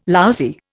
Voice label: "lousy", Indian

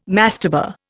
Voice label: "mastaba", Indian

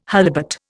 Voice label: "holibut", Indian

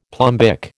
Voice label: "plumbic", American

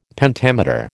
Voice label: "Pentameter", American

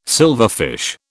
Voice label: "silverfish", British

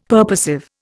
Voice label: "purposive", Indian; Dictionary

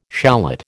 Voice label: "shallot", American

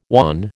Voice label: "wan", American

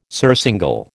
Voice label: "surcingle", American

